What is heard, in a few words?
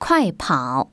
chinese run fast; samsung YP-U5; Audio User Interface